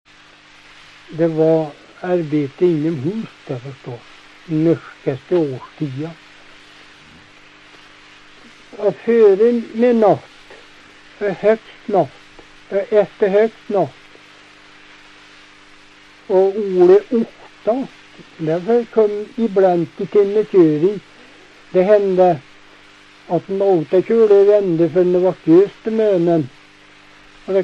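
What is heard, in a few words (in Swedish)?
Hede Härjedalen, dialekter, Svenska sagor; Folkminnen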